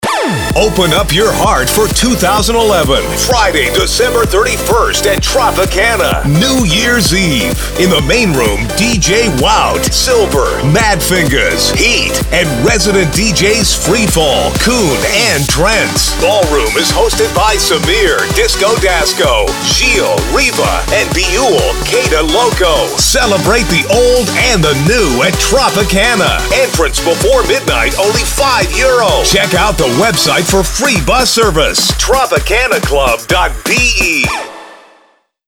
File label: party commercial; tropicana nye us